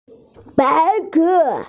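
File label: "bai se" (white), Chinese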